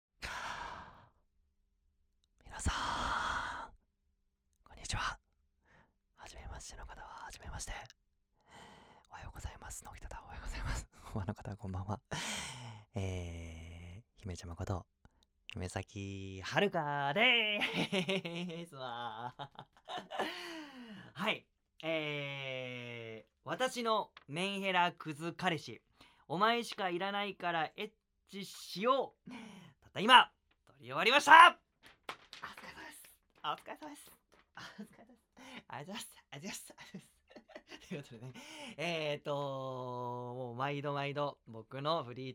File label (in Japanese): フリートーク